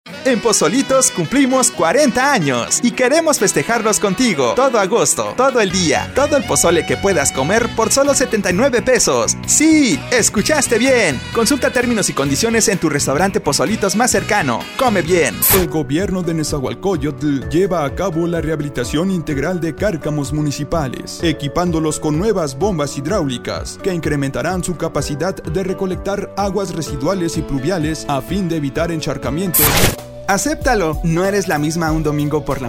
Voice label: spanish mexican commercial